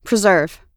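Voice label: "preserve", American English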